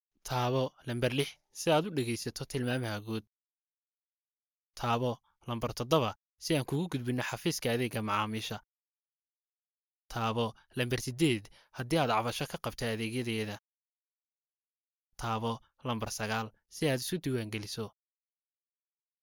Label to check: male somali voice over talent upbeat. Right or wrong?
Right